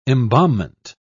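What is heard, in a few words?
"embalmment", British English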